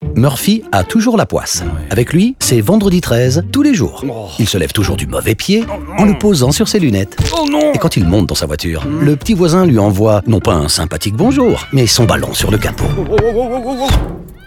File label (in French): radio Fr foot